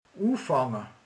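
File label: "elkezd", Hungarian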